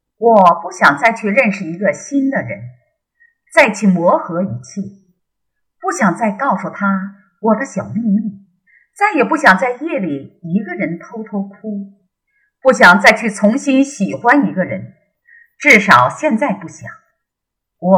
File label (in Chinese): 情感